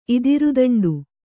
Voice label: "ಇದಿರುದಂಡು", Kannada

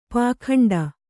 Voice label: "ಪೌಗಂಡ", Kannada

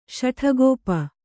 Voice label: "ಶಠಕೋಪ", Kannada

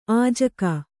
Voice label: "ಅಜ್ಜುಗ", kannada

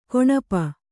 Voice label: "ಕೌಣಪ", Kannada

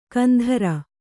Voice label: "ಕಂತ್ರಿ", Kannada

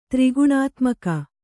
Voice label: "ತ್ರಿಗುಣಾತ್ಮಕ", Kannada

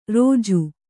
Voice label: "ರೊಜ್ಜು", Kannada

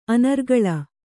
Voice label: "ಅನರ್ಗಳ", Kannada

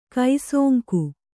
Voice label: "ಕುಸಂಗ", Kannada

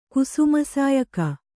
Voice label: "ಕುಸುಮಸಾಯಕ", kannada

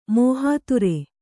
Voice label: "ಮೋಹಾತುರೆ", Kannada

